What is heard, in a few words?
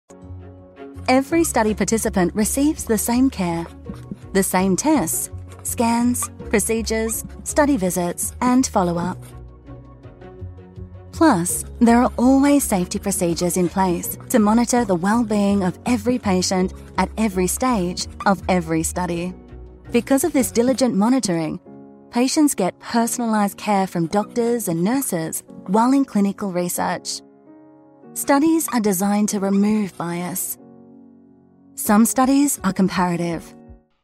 animated explainer; roche